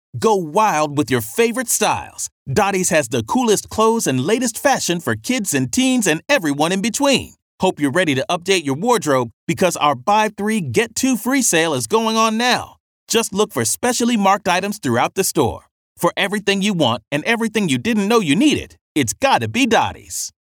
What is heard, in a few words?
Casual Conversational